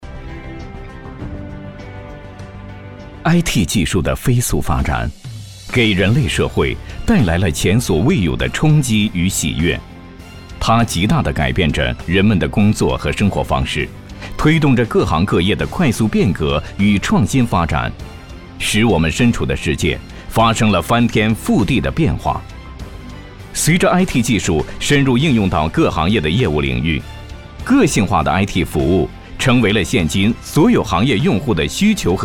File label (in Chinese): 男; 惠普国际品质服务中国